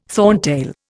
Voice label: "thorntail", Indian